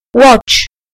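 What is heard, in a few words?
"watch", British English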